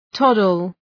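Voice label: "toddle", English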